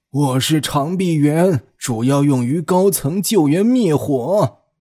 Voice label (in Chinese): 男 角色 长臂猿